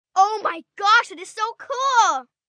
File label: Oh My Gosh, Reaction, 1 girl, 11 yrs.